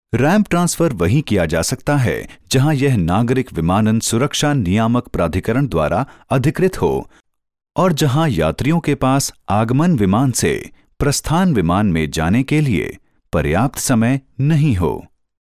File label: hindi male; dictor; dictor-sounds